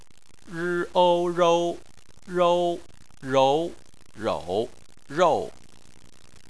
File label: "rou" Chinese